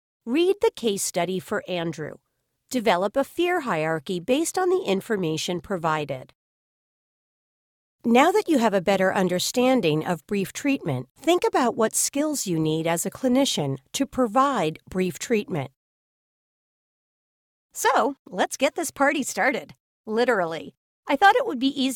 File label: ELEARNING